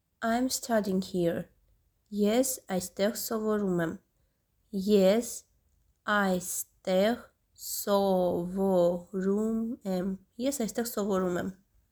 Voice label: I am studying here Armenian Es aystegh sovorum em

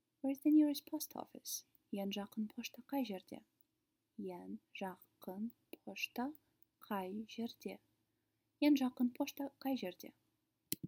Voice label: Where is the nearest post office, kazakh

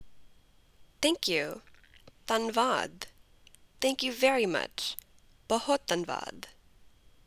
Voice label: Punjabi Thank you very much

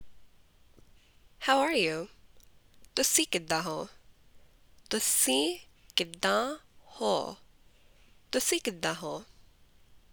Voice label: Punjabi How Are You

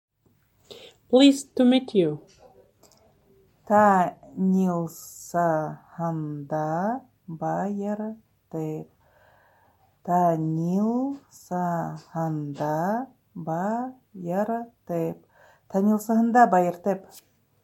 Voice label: Pleased to meet you, Buryat

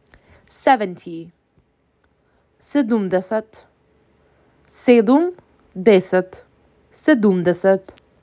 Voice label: MAC 6, macedonian phrasebook

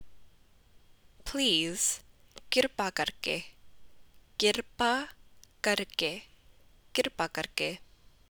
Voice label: "Please", Punjabi

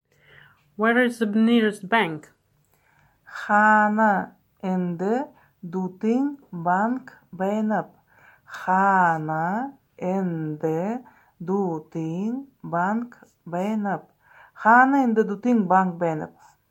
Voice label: Where is the nearest bank, Buryat

